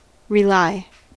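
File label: "rely", US English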